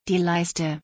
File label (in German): "die Leiste", German; body parts; Visual Dictionary